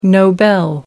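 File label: "nobel", British English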